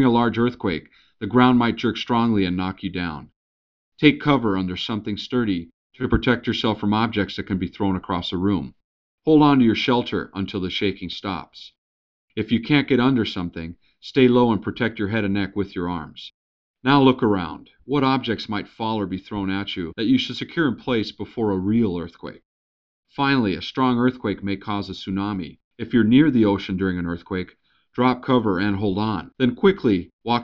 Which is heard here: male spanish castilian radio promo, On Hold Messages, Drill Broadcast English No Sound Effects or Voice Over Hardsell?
Drill Broadcast English No Sound Effects